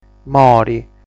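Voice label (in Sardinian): "Mori", Sardinian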